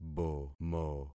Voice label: "bomo", concat syllables; Affixing experiment

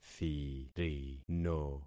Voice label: "thirino", concat syllables; Affixing experiment

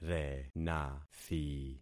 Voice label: "renathi", concat syllables; Affixing experiment

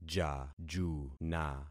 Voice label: "jajuna", concat syllables; Affixing experiment

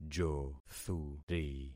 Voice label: "jothuri", concat syllables; Affixing experiment

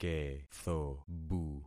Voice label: "kethobu", concat syllables; Affixing experiment

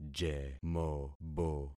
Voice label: "jemobo", concat syllables; Affixing experiment

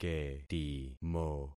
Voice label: "ketimo", concat syllables; Affixing experiment

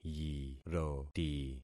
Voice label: "yiroti", concat syllables; Affixing experiment

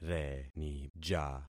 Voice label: "renija", concat syllables; Affixing experiment

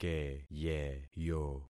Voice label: "keyeyo", concat syllables; Affixing experiment

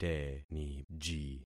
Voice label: "teniji", concat syllables; Affixing experiment

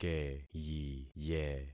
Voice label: "keyiye", concat syllables; Affixing experiment

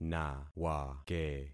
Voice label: "nawake", concat syllables; Affixing experiment

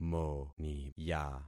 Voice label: "moniya", concat syllables; Affixing experiment

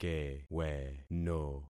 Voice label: "keweno", concat syllables; Affixing experiment

